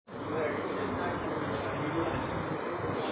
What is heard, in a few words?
Voice Note